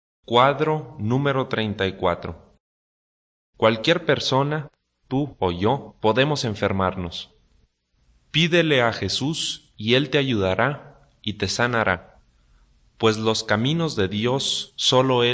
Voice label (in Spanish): good news spanish mexico